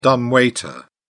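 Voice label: "dumb waiter", British English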